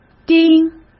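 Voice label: "丁", Mandarin Chinese; chinese-flashcards; hsk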